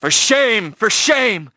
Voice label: Male Shame